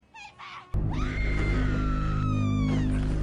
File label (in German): Yoga Girl; The Unusuals